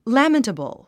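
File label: "lamentable", English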